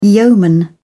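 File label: "yeoman", British English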